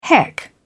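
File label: "heck", British English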